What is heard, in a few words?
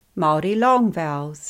maori long vowels; Lesson books